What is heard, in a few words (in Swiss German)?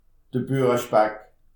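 "burespeck", Alsatian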